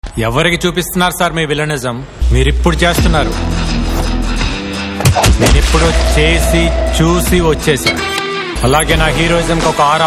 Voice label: Dialogue Ringtone; from Pakka Commercial Mov